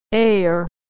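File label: "air" "heir", American English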